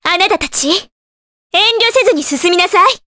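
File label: Vox Victory, Japanese; kingsraid; voices heroes jp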